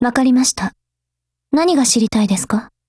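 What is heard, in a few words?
DIALOGUE, Japanese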